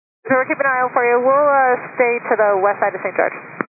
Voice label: 122 925MHz, scanner radio